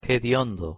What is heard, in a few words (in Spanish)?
"hediondo", h aspirada